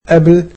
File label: "before", egyptian